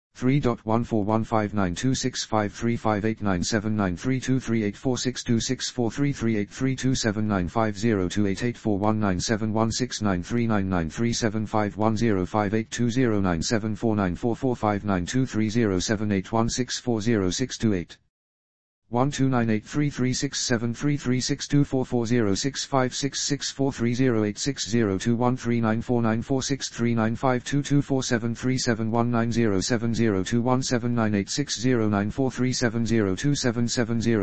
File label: american voice